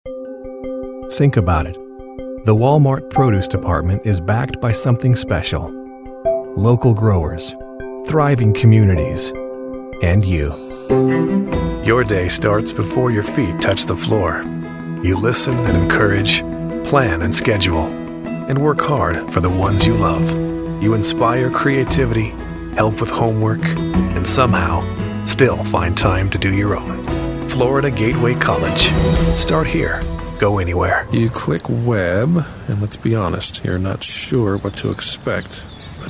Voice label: Commercial